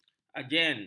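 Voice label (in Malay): "agen", Malay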